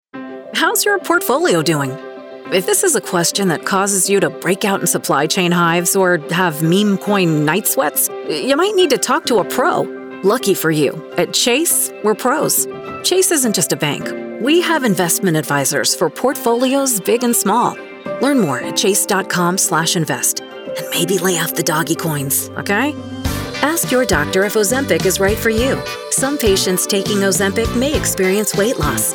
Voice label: commercial; Female Voice Talent